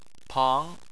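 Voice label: "pang" second tone, Chinese; Chinese Surnames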